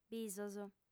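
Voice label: "bisos", lingua sarda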